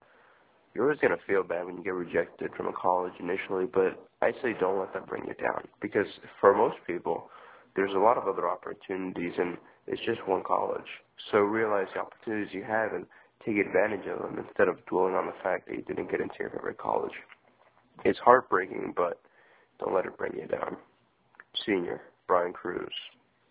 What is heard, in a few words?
Audio Message